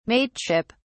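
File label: "mateship", British English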